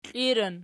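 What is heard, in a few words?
"kliran" nominative, Archi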